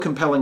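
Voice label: "compelling", US English; pronunciations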